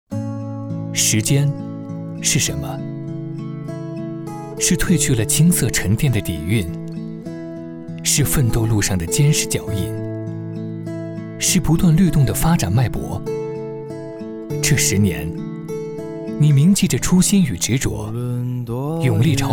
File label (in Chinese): 男 走心旁白; 时间是什么